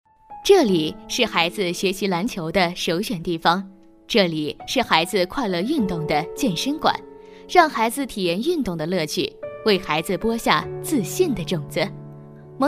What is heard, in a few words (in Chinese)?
女 学校专题 教育宣传片 大气稳重; 年轻稳重